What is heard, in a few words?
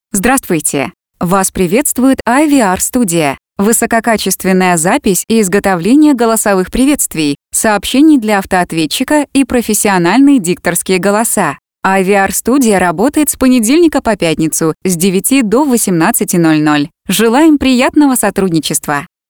RUSSIAN WOMEN